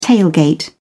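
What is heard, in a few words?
"tailgate", British English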